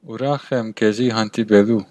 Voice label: meet, armenian western